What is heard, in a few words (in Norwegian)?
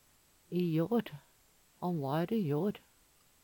i jar, Numedalsmål; Han va hær i jår.